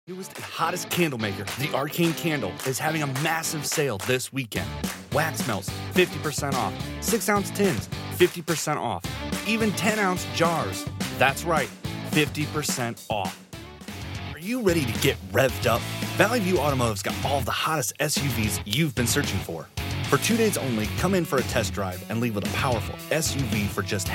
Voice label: Commercial